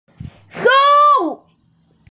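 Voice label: "sou1", Mandarin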